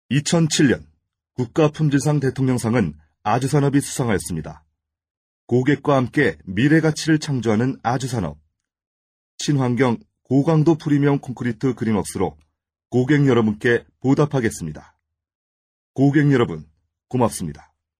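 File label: Korean, male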